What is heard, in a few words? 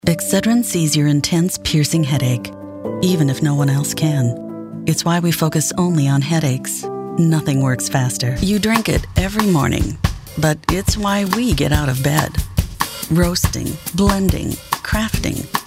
commercial